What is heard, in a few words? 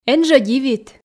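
эн жӧ дивит, Komi, female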